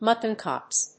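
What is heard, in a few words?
"muttonchops", English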